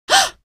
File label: shock, female; video games; npc; source engine; episodic; episode 1